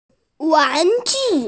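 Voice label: "wán jù", Mandarin Chinese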